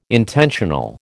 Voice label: "intentional", American